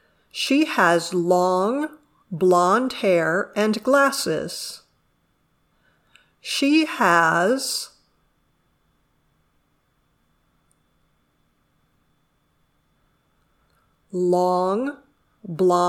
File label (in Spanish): Dictado